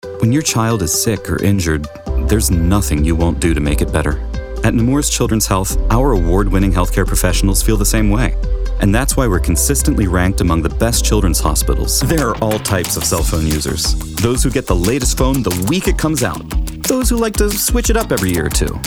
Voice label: Commercial, Voice Actor; Voice Actor & Grammy-Winning Vocalist